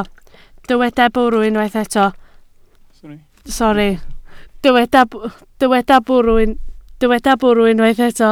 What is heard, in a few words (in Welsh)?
"bwrw", Welsh